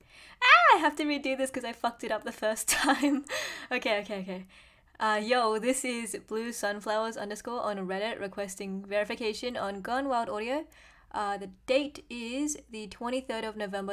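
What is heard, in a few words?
Female Updated Verification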